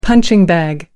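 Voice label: "punching bag", British English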